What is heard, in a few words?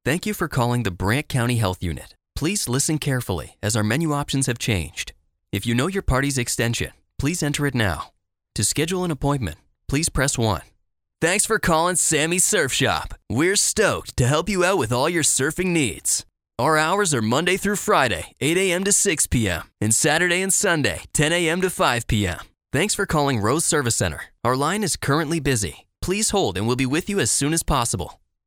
IVR